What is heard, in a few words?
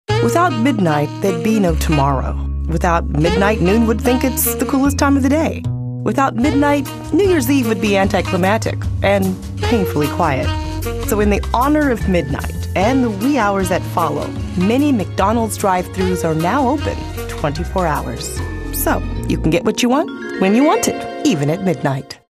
cool jazzy